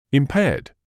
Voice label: "impaired", English